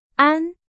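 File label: Chinese, vocabulary; hsk4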